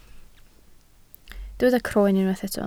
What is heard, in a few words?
"croen", Welsh